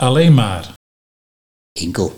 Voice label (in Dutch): Alleen maar - Enkelt, Nieuw lekkerlands dialect